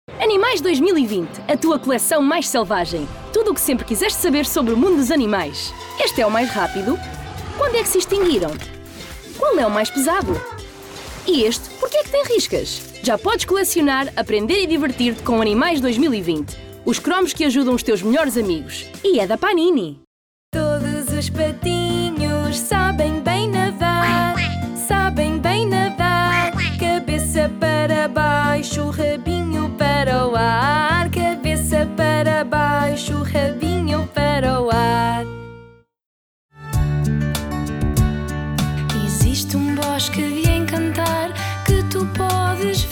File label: characters, portuguese, female